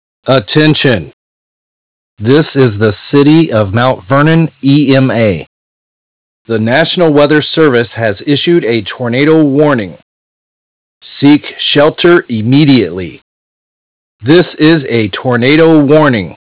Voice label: tornado warning message